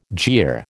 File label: "jeer", American